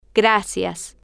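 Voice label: "gracias", Spanish, female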